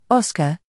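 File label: "o", British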